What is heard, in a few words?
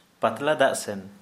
Santali diarrhea